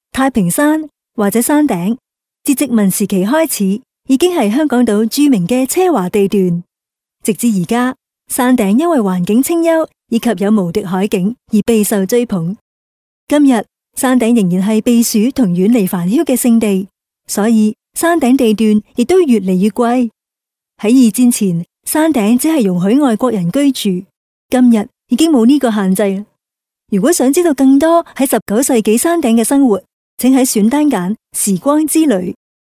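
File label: Cantonese female corporate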